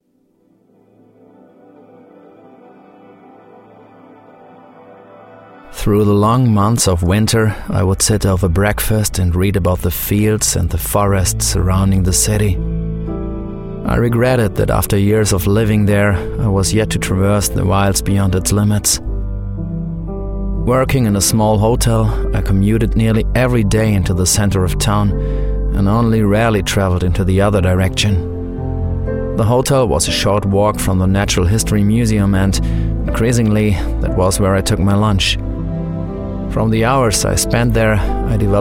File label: english